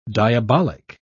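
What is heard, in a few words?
"diabolic", British English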